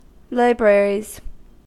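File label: "libraries", American English; pronunciations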